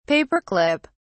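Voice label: "paperclip", British English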